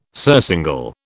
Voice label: "surcingle", British